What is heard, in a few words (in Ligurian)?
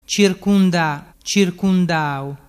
"circundadau", dialettu